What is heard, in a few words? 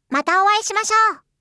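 "mata aishimashou", Japanese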